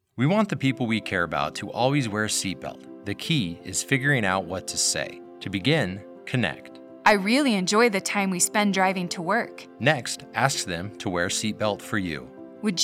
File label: Radio ad